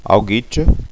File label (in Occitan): "auguicha", gasconha